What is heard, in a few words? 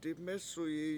I split open, Washo; dimEsuyi